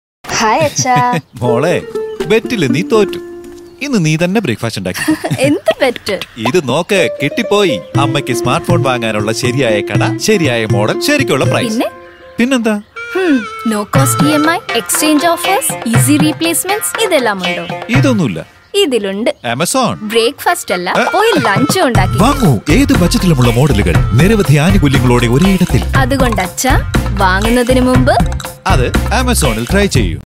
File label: TVC, female, Malayalam; Amazon